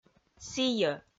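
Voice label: "сійӧ", Komi, female; audio dict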